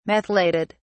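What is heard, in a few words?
"methylated", English, British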